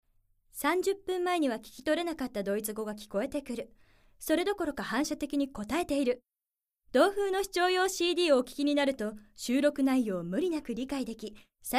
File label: narration